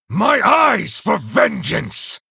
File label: Male Night Elf Play